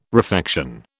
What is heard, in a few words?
"refection", British